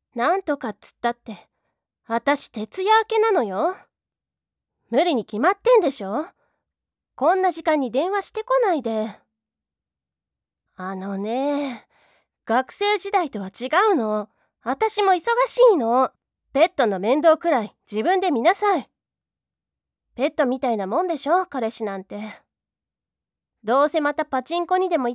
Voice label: セリフ 大人女性 怒り 強気 微照; 女友達

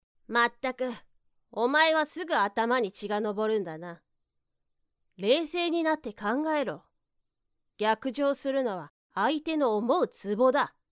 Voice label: メガネ系少年

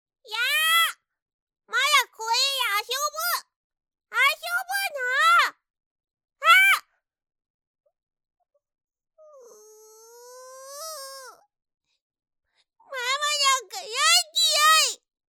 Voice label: わがまま幼女

